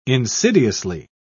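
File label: "insidiously", British English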